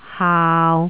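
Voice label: "haau6", Jyutping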